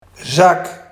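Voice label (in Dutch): "zak", Barghs dialect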